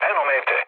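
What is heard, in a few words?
Serbian near waypoint mood low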